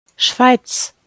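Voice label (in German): "schweiz", German; pronunciation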